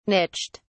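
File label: "niched", British English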